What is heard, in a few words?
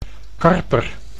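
"karper", Dutch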